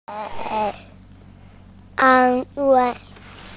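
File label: "an1 wei4", Mandarin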